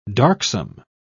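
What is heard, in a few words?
"darksome", British English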